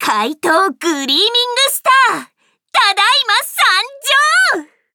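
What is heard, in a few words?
start, Japanese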